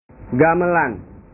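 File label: "gamelan", Indonesian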